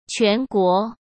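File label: "全国", Mandarin; chinese flashcards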